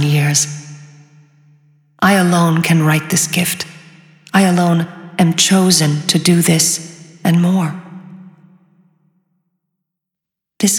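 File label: Yeesha Final Sp english; moul-assets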